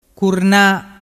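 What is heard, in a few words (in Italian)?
"curna", Vernazzese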